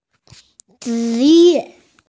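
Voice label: "zhi2 ye4", Mandarin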